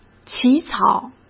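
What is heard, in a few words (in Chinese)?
"起草", Mandarin Chinese; hsk; chinese-flashcards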